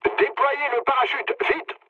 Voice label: bailout mood low, speech fr; War Thunder

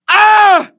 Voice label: Elder Charge, english voiceovers

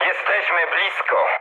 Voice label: near waypoint mood med, speech pol; War Thunder

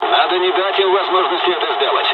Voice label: dialog, dialogs russian; War Thunder

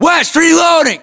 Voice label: US inform reloading, battlechatter, english

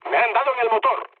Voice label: damaged engine, mood low, speech; War Thunder

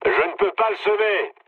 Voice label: aircraft damaged mood low, speech fr; War Thunder

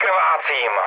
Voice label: damaged pilot, speech cze, mood low; War Thunder

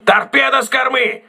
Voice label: torpedo rear, crew ships russian

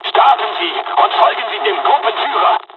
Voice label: first wave takeoff, dialogs german; War Thunder

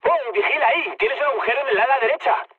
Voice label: right wing, mood med, speech; War Thunder

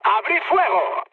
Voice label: attack position, mood med, speech; War Thunder

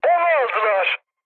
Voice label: aircraft destroyed, mood med, speech tur; War Thunder

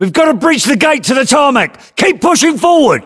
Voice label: voiceovers, US; english

